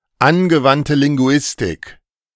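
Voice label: "Angewandte Linguistik", German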